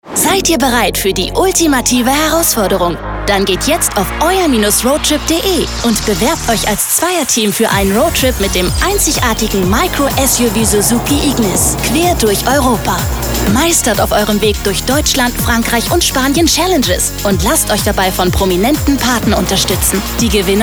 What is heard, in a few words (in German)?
infomercial radio